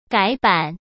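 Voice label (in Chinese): "改版", Mandarin Chinese; chinese-flashcards; hsk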